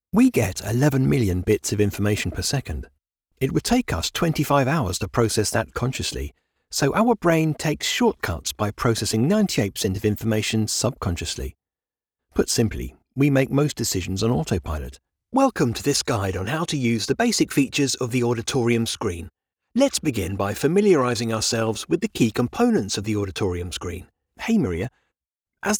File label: eLearning NO MUSIC